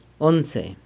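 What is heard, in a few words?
"onse", eleven, San Lucas Quiaviní Zapotec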